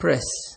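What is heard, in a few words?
"press", Nigerian Pidgin, male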